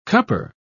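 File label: "cupper", British English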